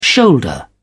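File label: "shoulder", British English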